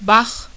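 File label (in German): "bach" German; ipa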